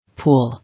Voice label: "Pool", English

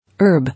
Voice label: "Herb", English

